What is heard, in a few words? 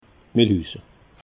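"milhuse", Alsatian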